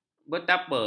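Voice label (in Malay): "betapa", Malay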